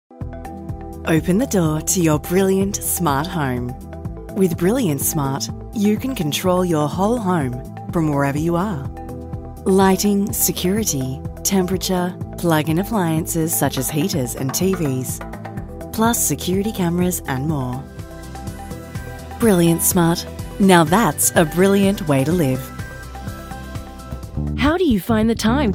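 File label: Commercial voice-over, Australian English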